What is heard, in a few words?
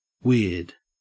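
"weird" English, Australian; pronunciations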